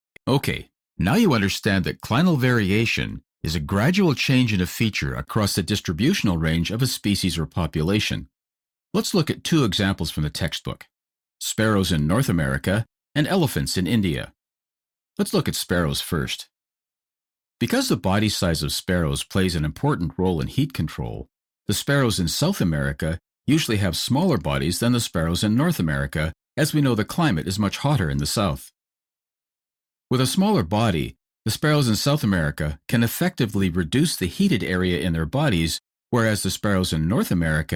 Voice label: TOEFL Speaking